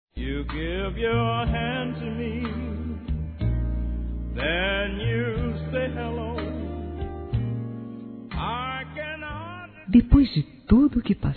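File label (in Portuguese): DESEJO VOCÊ, Feminino; Telemensagens; Românticas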